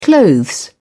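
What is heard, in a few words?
"clothes", British English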